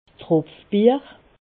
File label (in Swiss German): "tropfbeer", Alsace